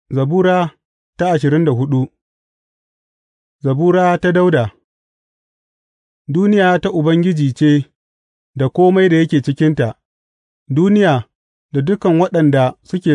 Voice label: hausa bible drama, psalms; hausa bsn nt drama